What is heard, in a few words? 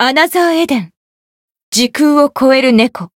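Voice title, Japanese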